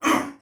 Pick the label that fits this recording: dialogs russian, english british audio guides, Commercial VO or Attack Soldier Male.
Attack Soldier Male